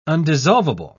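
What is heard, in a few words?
"undissolvable", British English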